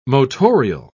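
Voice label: "motorial", British English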